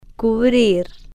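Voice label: "cubrir", Spanish, female, slow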